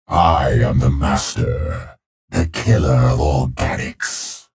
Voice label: menu chatter; clutch